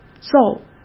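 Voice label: "揍" Mandarin Chinese; hsk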